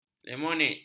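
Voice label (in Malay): "lemonade", Malay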